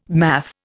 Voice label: "math", Indian